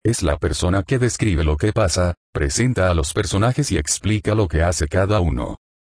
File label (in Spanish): el narrador